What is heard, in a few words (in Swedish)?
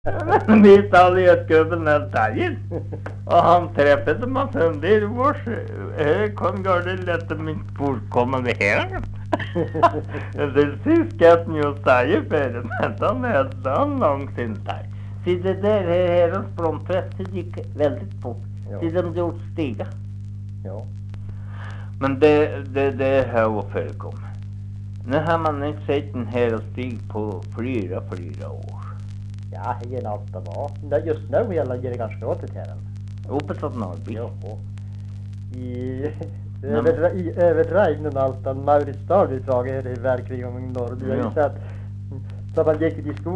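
Norsjö Västerbotten, svenska sagor dialekter; Folkminnen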